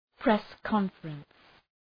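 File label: "press conference", English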